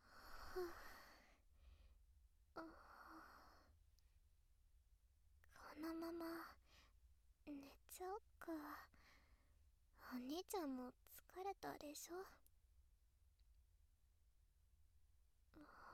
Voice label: ピロートーク; SEなし

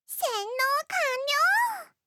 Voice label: せんのーかんりょー！, ロリ; ハイグレボイス集

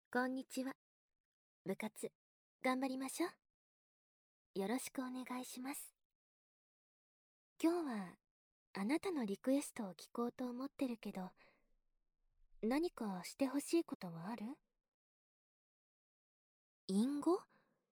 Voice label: 淫語をずっと囁いてあげる

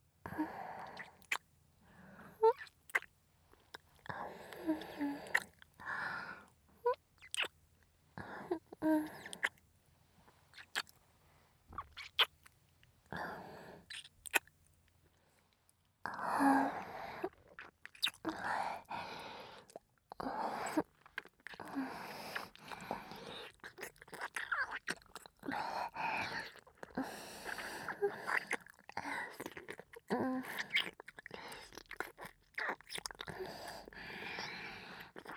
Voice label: くちゅ音なし反転, ASMR

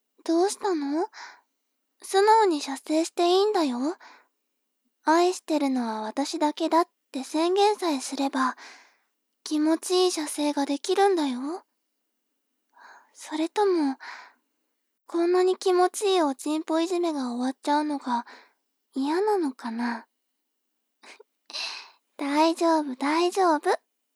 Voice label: 妹 台詞

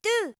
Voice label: どぅ, 明るい声

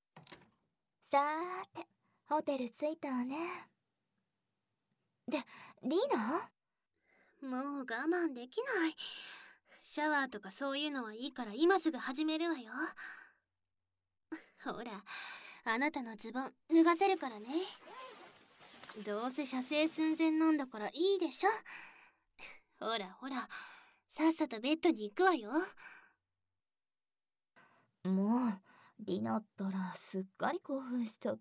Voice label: 効果音あり 耳舐め ささやき 乳首責め キス 騎乗位; 乱暴に…。里奈編

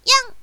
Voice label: "やんっ", 被ダメージ

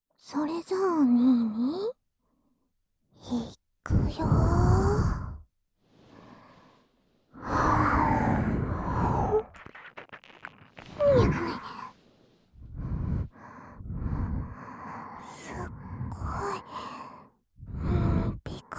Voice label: 双子の両耳ぐちゅぐちゅ咥え耳舐め, ASMR

